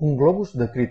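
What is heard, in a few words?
scream balloon description, Catalan; tuxpaint-stamps; symbols; shapes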